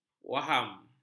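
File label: "waham", Malay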